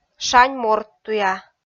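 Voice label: "шань морттуя", Komi, female; kpv audio dict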